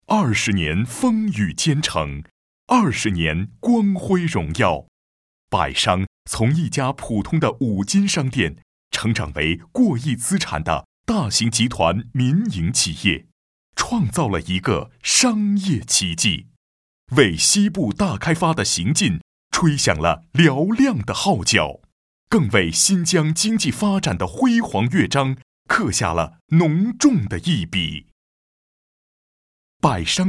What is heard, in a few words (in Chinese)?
男 专题, 国语男声配音 大气浑厚; 百商集团